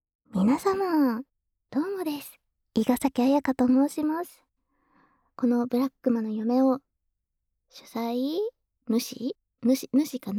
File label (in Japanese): フリートーク; 楓和役; おま〇こで町おこし!生ハメし放題なおま〇こ付き物件に移住しませんか??